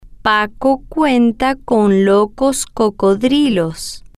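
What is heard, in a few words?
Spanish, female, slow; paco Cuenta Con Locos Cocodrilos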